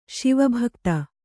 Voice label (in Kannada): "ಶಿವಭಕ್ತ", Kannada